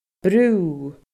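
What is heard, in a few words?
"bru", Scottish Gaelic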